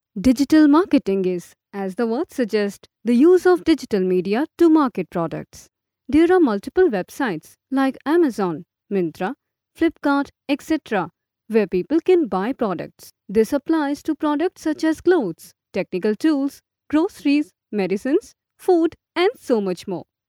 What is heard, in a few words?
female hindi voice over english